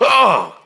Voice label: "oh", Voice; ooh ahh